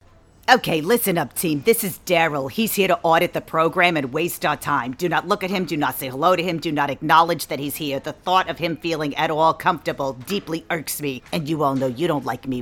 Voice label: Voiceover Animation; new york